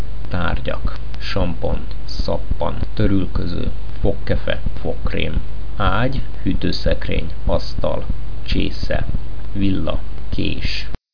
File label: hungarian objects